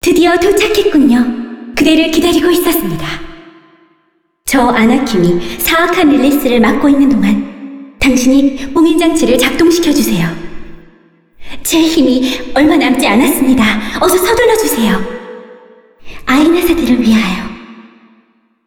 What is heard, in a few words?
cinematic voice; lineage2